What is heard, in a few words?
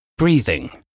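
"breathing", English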